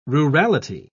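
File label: "rurality", British English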